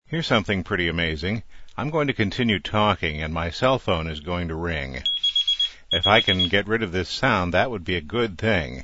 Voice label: Cell Phone before